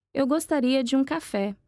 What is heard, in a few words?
conversation